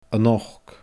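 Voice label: "a nochd", Scottish Gaelic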